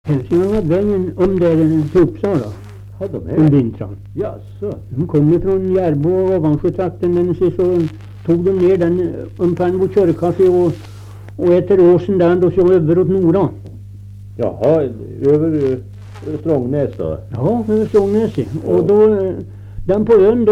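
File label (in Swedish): Österförnebo Gästrikland, Svenska sagor, dialekter; Folkminnen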